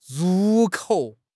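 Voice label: "ru4 kou3", Chinese; HSK4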